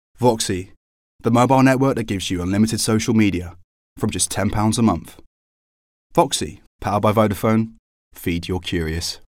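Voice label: British English, Commercial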